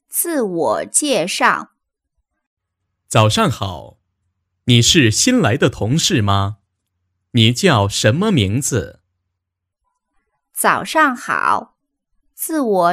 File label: Dialogue, Mandarin; Survival; Business; Lesson1